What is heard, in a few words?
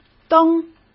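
"灯", Mandarin Chinese; chinese flashcards; hsk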